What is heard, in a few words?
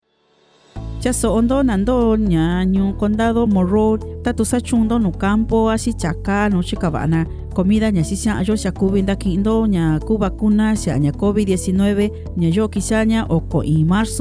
Mixteco Bajo; Morrow; Vaccine Radio Spots; covid19